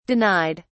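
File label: "denied", British English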